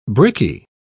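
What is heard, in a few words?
"brickie", English, British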